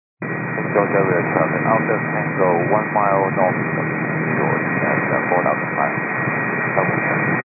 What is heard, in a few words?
122 925MHz, scanner radio